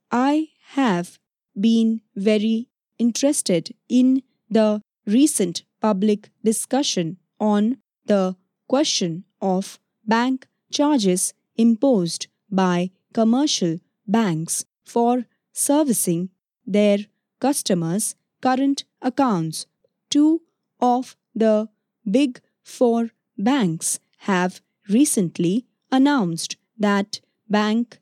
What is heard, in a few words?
English Mock Steno Passage Audio